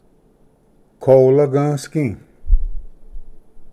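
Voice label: "kolagvsgi", Cherokee; ᎪᎳᎬᏍᎩ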